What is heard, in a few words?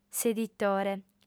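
"s'editore", female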